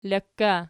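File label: "lok" ergative, Archi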